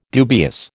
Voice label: "dubious", American

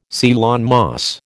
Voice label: "Ceylon moss", American

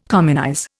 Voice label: "communize", Indian

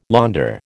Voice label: "launder", American

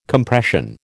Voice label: "Compression", American

